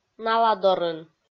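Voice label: "наладорын", Komi, female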